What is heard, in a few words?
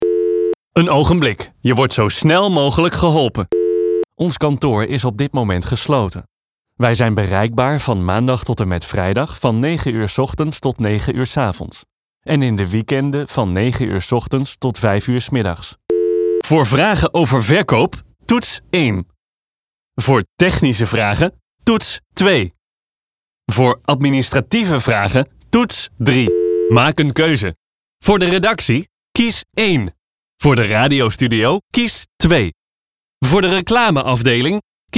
IVR